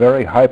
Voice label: very High; BOMBER III™ (DEMO)